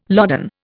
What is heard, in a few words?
"loden", Indian